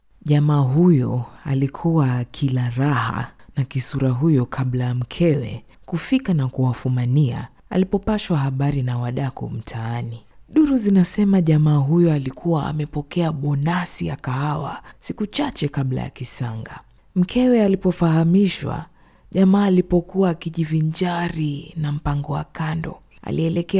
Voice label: Swahili F Character; voice-over